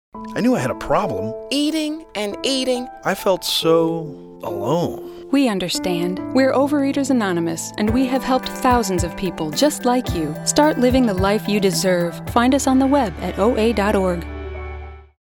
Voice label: Radio PSA